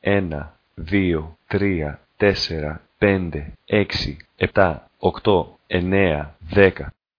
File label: greek; numbers